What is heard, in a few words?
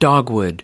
"dogwood", us